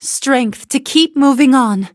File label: levelup en; cookie run